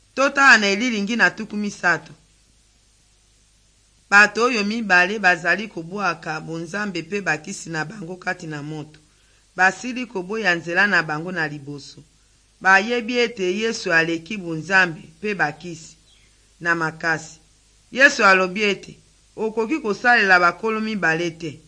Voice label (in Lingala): good news lingala west, drama